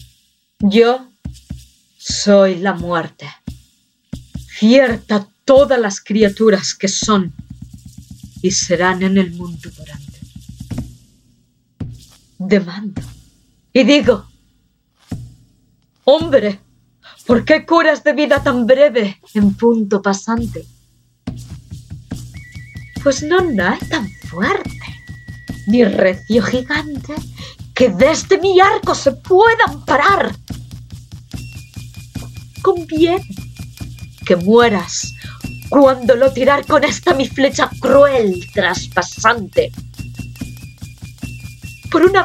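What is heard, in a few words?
ESEU Narrative, Spanish (Spain); GoLocalise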